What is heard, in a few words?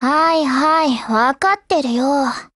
HELLO, JP; GF Alarm; Mk12